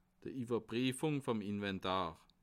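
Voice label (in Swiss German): "iwerprifung", Alsatian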